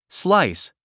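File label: "slice", English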